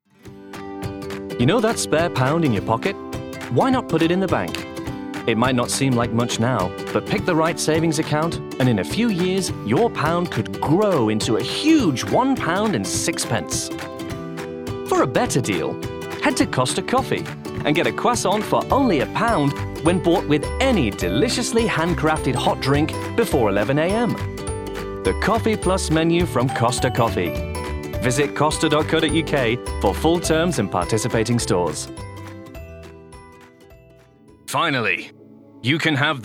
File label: Commercial